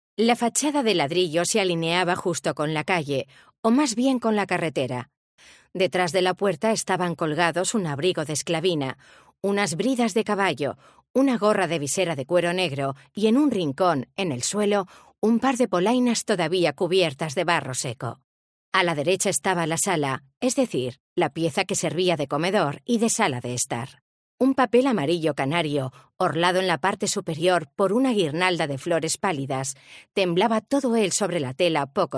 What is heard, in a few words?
FEMALE, audiobooks